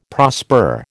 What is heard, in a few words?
"prosper", American